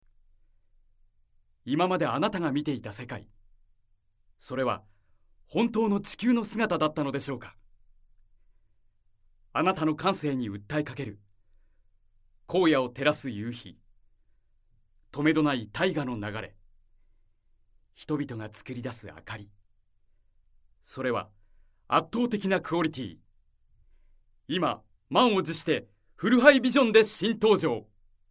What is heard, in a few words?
narration